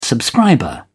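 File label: "subscriber", British English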